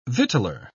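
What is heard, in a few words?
"victualer", British English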